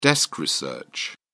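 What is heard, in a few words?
"desk research", British English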